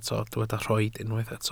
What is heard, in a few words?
"rhoid", welsh